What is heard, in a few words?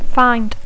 "find", English